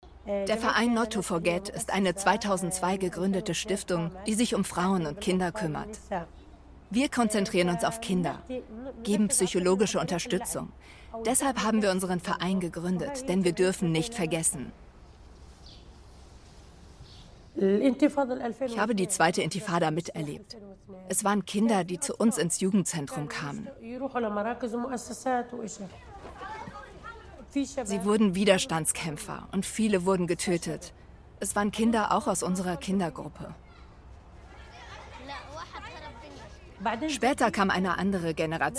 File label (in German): voice over psychologin; zdf